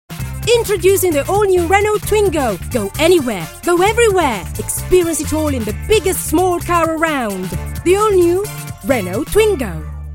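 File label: IT AccEN, Italian accented English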